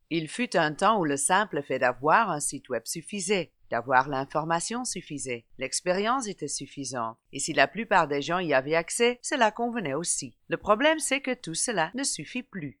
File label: French explanatory informal-natural; Readspeaker